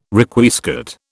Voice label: "Requiescat", British